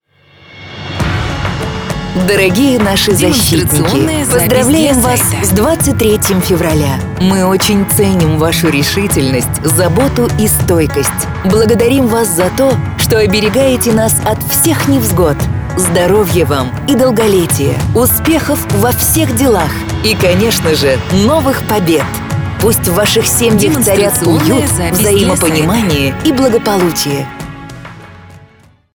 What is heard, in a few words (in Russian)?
Поздравление с 23 февраля женский; универсальное